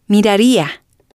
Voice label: "miraria", spanish